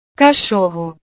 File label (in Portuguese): "cachorro", portugues